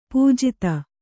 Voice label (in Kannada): "ಪೂಜಿತೆ", kannada